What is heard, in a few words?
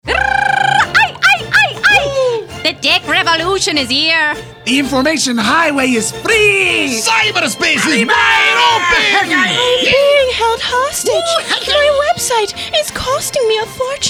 commercial